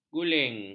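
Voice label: "guling", Malay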